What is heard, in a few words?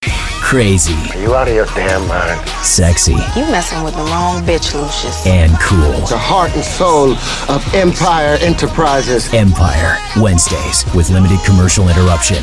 promo, men